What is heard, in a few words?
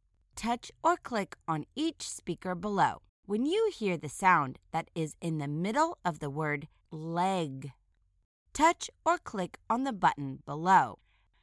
leg medial phoneme isolation